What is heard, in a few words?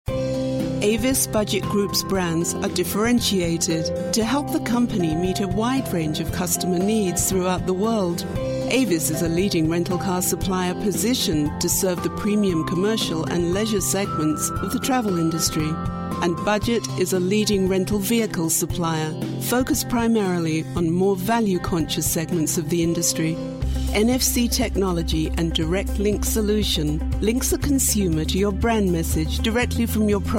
British Voice Female Corporate